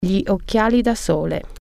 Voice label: "sunglasses", Italian

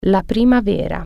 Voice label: "spring", Italian

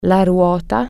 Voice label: "wheel", Italian

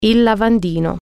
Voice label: "sink", Italian

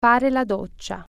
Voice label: "shower", Italian

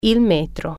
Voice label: "tape measure", Italian

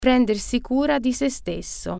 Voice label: "take care of onesself", Italian

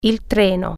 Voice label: "train", Italian

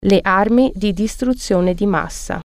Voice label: "weapons of mass destruction", Italian

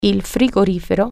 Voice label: "refrigerator", Italian

